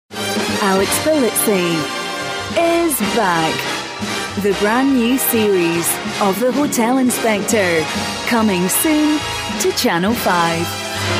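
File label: scottish tv promo, female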